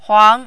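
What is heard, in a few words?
"huang", second tone, Mandarin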